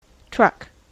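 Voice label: "truck", American English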